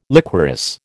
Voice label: "liquorice", American